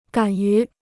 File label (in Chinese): "敢于", Chinese